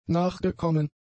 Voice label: "nachgekommen", German; adjectives